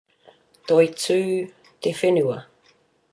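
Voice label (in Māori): "toitu te whenua", Māori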